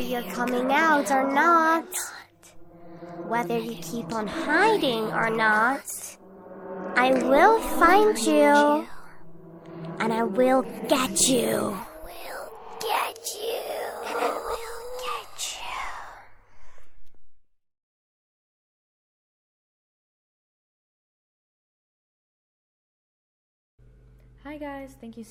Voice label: creepy little girl i m going to get you horror sounds